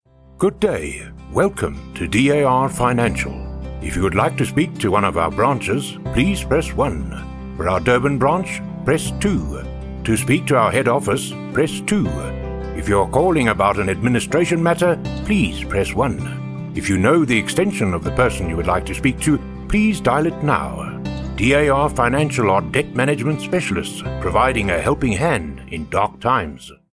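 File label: english south african interactive voice response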